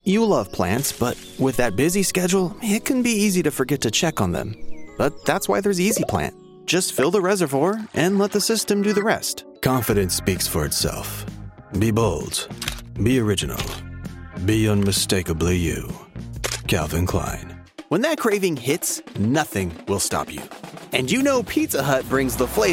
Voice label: commercial